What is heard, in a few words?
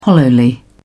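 "hollowly", British English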